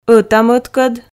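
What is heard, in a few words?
"ӧта-мӧдкӧд", Komi, female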